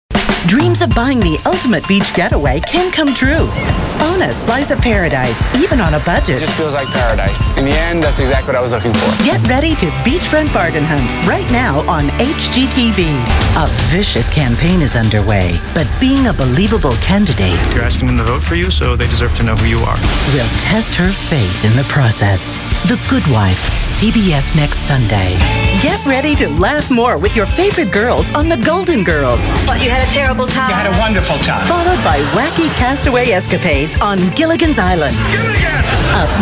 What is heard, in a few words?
women promos; Rich, Warm, Smooth, Authoritative, Upbeat, Natural.